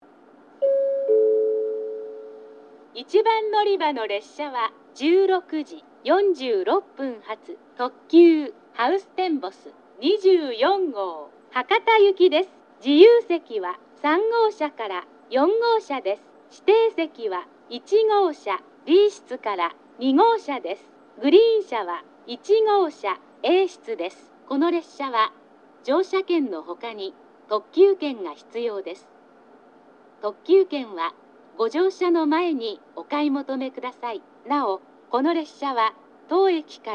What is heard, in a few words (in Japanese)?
1番のりば停車中放送, 英語放送あり; ハウステンボス; 1番のりば停車中放送（ハウステンボス24号 博多行き）; ※ワンマン化後の放送